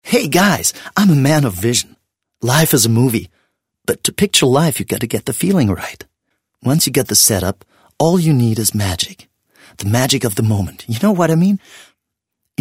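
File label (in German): Monolog English, Kommentar, Sprecher, Männer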